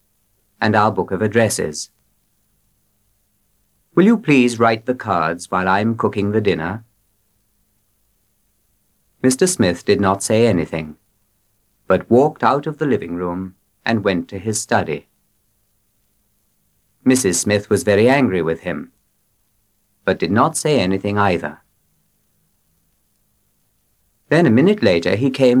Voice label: short reading Christmas